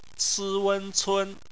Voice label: "cun", Chinese; phonetics; bpmf

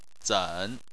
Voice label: "zen3", Chinese

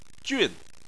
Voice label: "jun4", Chinese

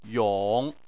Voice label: "yong3", Chinese

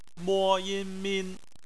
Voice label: "min", Chinese; phonetics

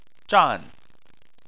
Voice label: "zhan4", Chinese

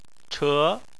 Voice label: "che2", chinese

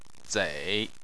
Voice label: "zei3", Chinese

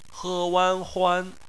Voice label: "huan", Chinese; phonetics; bpmf

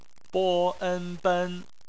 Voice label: ben, Chinese Phonetics

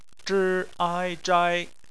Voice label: "zhai", Chinese

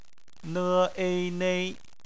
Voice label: "nei", Chinese; phonetics

